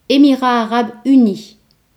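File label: "united arab emirates", French; gcompris-data; geography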